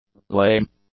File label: "lame", english; adjectives